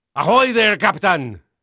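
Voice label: Spanish male, voice; Pirates Of The Caribbean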